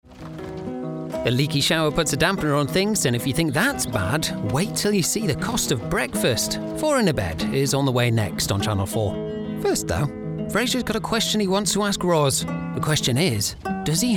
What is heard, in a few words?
continuity